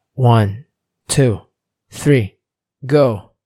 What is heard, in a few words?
1 2 3 Go Male